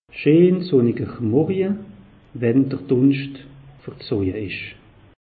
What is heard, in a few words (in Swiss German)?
"sunniger morje", alsace; meteo